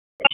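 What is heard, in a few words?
Dispatch, p25; WSO DISP 1; wake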